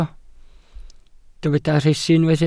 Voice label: "rhesi", Welsh

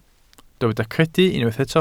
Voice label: "credu" Welsh

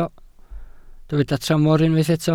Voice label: "tramor", Welsh

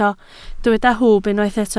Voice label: "hwb", Welsh

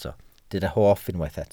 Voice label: "hoff", Welsh

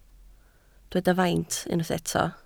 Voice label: "faint", Welsh

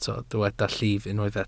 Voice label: "llif", Welsh

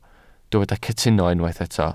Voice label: "cytuno", Welsh

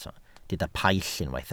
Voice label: "paill", Welsh

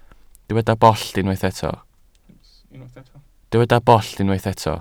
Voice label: "bollt", Welsh

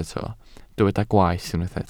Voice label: "gwaeth", Welsh

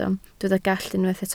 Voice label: "gallt", Welsh

